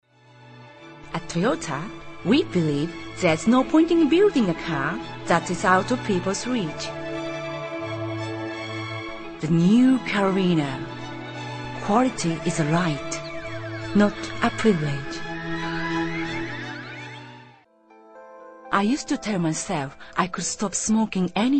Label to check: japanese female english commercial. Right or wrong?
Right